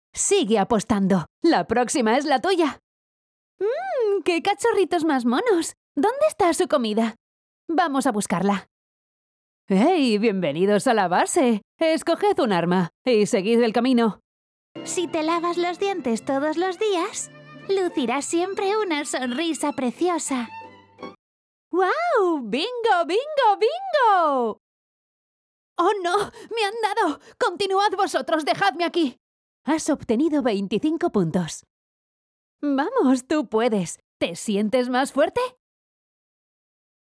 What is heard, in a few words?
cartoon audiobook games